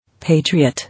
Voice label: "Patriot", English